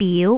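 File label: "biu2", Cantonese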